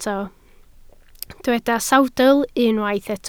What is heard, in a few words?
"sawdl", Welsh